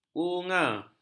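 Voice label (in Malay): "bunga", Malay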